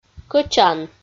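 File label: "кӧчан", Komi, female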